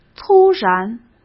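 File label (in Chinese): "突然", Mandarin Chinese; hsk; chinese-flashcards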